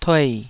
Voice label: "tuei3", taiwanese

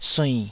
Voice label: "suinn3", taiwanese Syllables

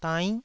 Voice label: "dainn9", Taiwanese syllable

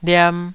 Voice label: "liam2", Taiwanese

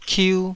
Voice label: "kiu2", taiwanese syllable

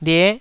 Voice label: "lie9", Taiwanese

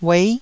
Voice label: "uei9", taiwanese

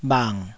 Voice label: "bhang3", taiwanese

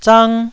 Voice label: "zang1", taiwanese Syllables

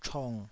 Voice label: "chong3", Taiwanese

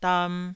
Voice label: "dam2", Taiwanese syllable

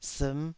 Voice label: "siim5", Taiwanese

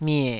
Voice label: "mie3", taiwanese

